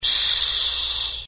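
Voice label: curious, Italian; dialogue; mohaa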